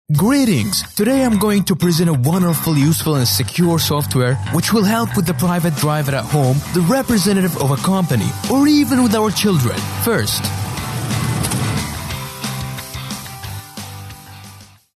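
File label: Arabic, male, English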